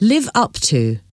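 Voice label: "live up to", English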